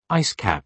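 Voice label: "ice cap", British English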